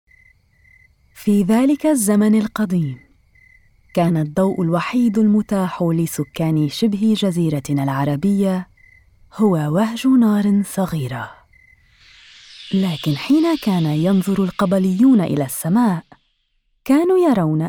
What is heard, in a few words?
Arabic Classical